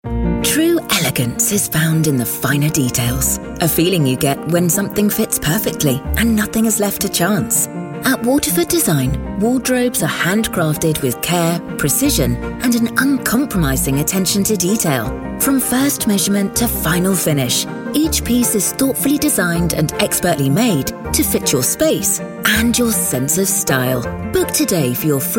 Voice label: rp polished read